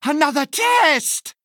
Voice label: Male Human Attack

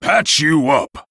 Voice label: Male Dracthyr Attack

